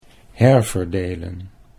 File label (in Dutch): "herverdelen", Dutch